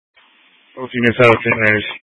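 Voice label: Fire Dispatch, scanner audio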